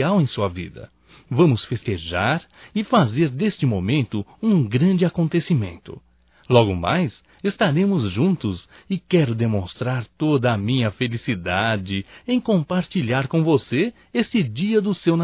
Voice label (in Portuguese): Aniv Romântico (M), masc; Telemensagens 2017; Aniversarios